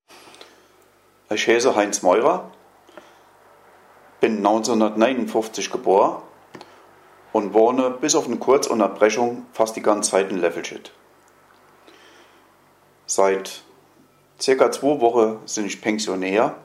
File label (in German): stellt sich vor, Hunsrück; Peterswald-Löffelscheid; Schicksale Peterswald-Löffelscheid